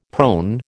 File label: "prone", American